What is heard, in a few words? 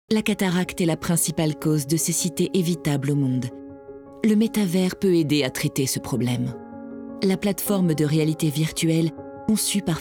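French female commercial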